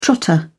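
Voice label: "trotter", British English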